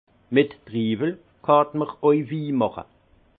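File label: "mittriwel", Haut Rhin; vin; avec des raisins peut aussi faire du vin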